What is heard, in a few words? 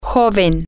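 young, Spanish, female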